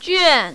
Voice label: "juan4", mandarin, female

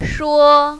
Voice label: "shuo1", mandarin, female

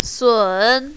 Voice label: "sun2", mandarin, female

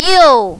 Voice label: "you4", mandarin, female

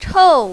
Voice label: "chou4", mandarin, female

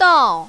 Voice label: "dao4", mandarin, female

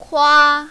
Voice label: "kua1", mandarin, female

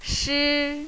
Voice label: "shi5", mandarin, female

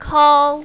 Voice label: "kao1", mandarin, female

